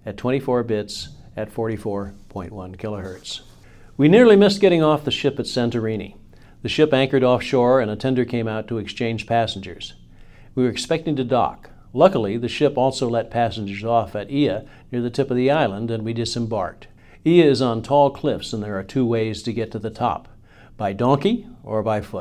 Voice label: Sanken COS11 left Sony ECM55b right male, microphones